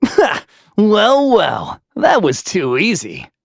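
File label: Vox Victory, voices heroes English; Kibera; kingsraid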